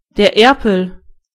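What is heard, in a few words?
duck, German voices words; gcompris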